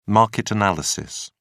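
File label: "market analysis", English, British English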